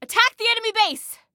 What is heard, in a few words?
Attack Base, Female